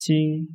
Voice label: "今", Chinese